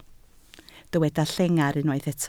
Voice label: "llengar", Welsh

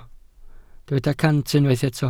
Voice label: "cant", Welsh

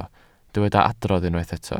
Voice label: "adrodd", Welsh

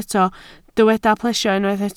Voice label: "plesio", Welsh

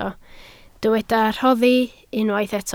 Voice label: "rhoddi", Welsh

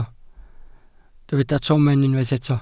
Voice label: "tomen", Welsh

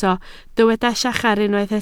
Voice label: "llachar", Welsh

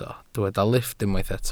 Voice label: "lifft", Welsh

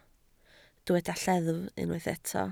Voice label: "lleddf", Welsh

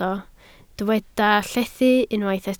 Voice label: "llethu", Welsh

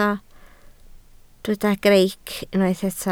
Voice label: "greic", Welsh

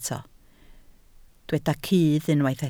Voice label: "cudd", Welsh

